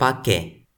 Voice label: "pake", Toki Pona; lipu linku